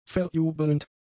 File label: "verjubelnd", German; adjectives